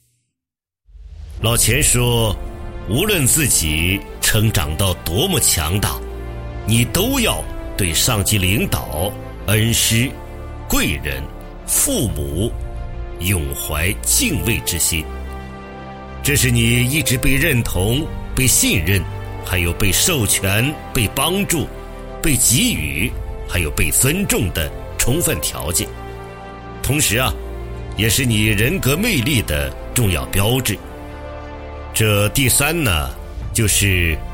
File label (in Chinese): 男 演讲 讲座